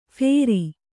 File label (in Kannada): "ಫೇರಿ", Kannada; phēri; noun